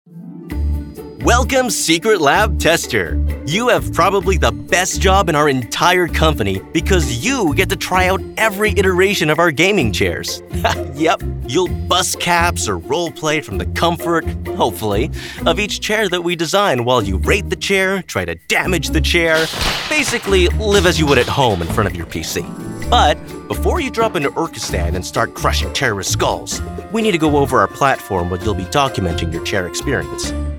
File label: E-Learning